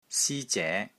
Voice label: "SI1 ZE2", Wing Chun Elements